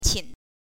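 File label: "qin", Mandarin pinyin, neutral tone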